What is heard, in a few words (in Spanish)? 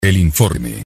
voz el informe